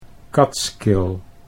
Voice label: "Kats Kill", Dutch